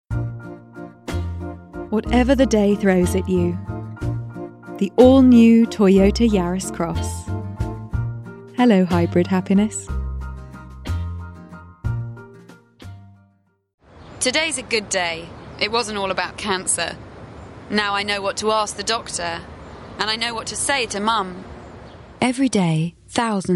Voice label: Commercial Reels